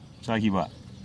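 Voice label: "chagiba", Teotitlán del Valle Zapotec; sky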